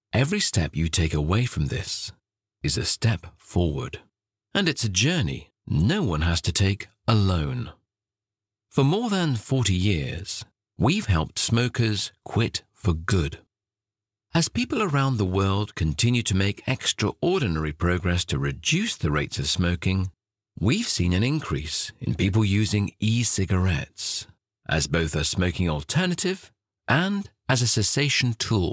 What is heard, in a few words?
Corporate Video